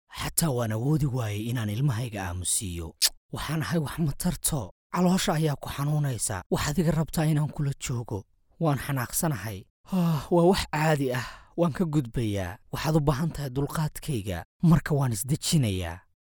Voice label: Character Voice